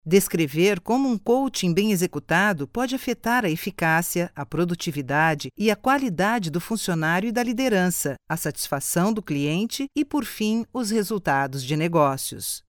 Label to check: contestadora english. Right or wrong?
Wrong